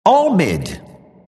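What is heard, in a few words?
announcer type all mid; Dota 2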